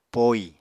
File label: "poi", Apatani